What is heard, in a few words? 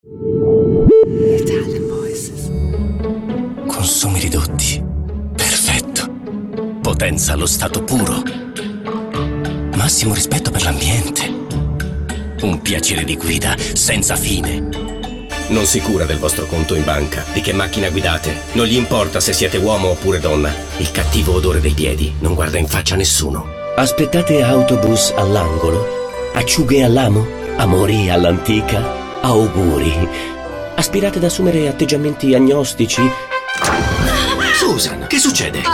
ITALIAN VOICES Acting Comedy